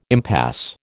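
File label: "impasse", American English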